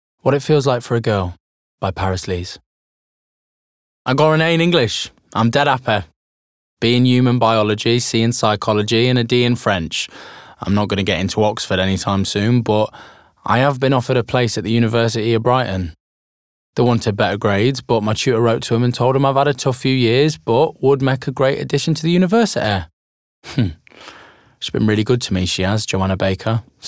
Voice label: audiobook; What It Feels Like For A Girl